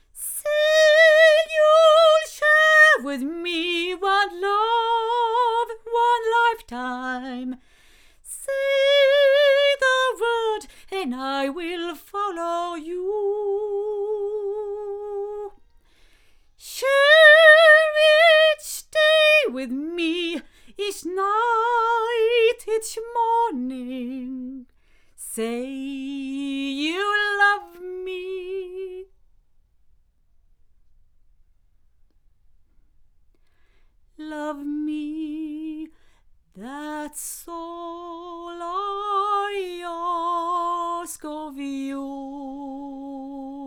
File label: cardioid female, microphones; Lauten; Clarion